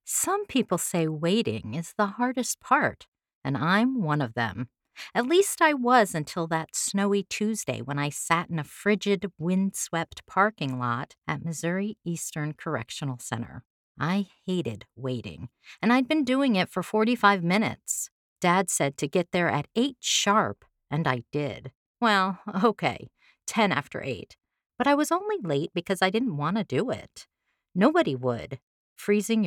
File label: narration sample mystery